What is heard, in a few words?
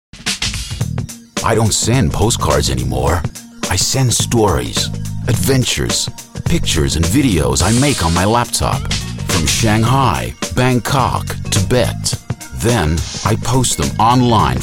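american, male voice over artists australia; American Accents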